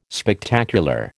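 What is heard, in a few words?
"Spectacular", American English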